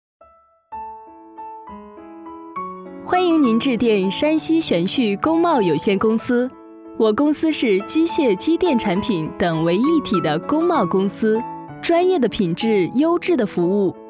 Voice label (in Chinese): 彩铃 知性